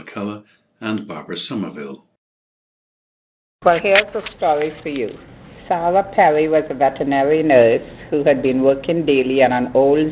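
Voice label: trinidad, dialects of English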